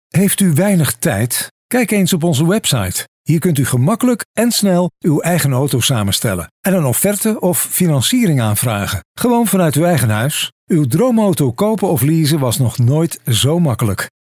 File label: Professional